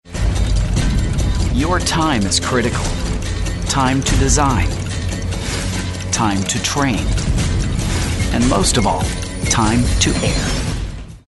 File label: serious